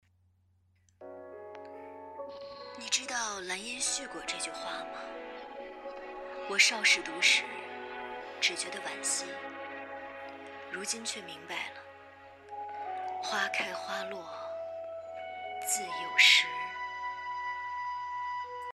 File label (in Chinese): 伤感